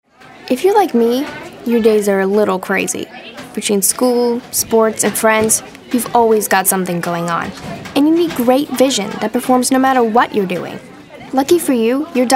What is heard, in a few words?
girl next door